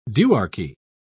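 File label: "duarchy", British English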